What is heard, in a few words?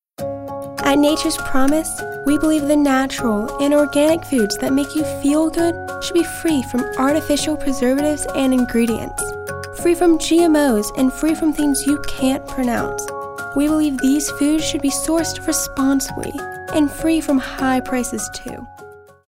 soft spoken